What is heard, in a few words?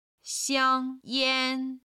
"xiang1 yan1", Chinese